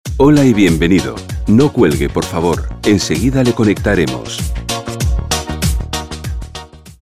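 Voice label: Spanish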